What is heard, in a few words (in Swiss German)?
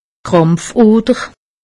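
"krampfoder", Alsatian; medecine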